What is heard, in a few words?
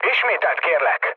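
chat, speech hungarian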